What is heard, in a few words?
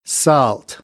"salt", American English; ɑː - ɒ